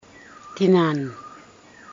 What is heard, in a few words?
female; matukar